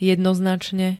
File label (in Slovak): "jednoznačne", Slovenské